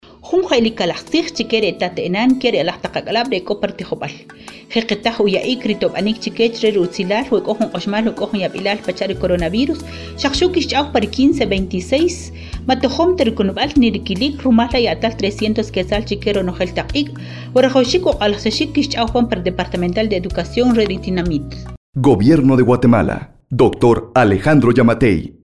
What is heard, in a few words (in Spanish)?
Version Kiche